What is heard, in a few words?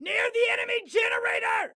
Warn Context L Enemy Near Enemy Generator, Excited Guy